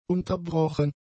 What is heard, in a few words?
"unterbrochen", German; adjectives